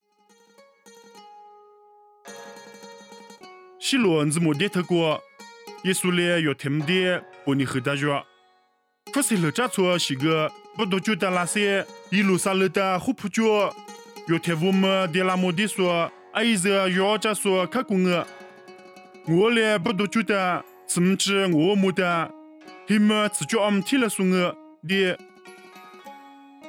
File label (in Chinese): 凉山彝语; 以色列犹太; nuosu